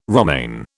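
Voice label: "romaine", British English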